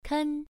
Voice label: "ken1", pronunciation